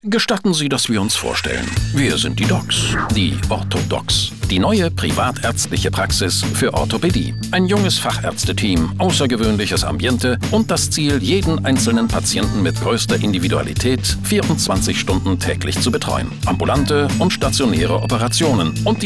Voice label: Funkspot; Ortho Docs